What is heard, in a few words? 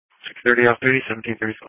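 Police Patrol Dispatch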